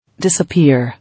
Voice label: "Disappear", English